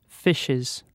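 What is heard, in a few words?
"fishes", British English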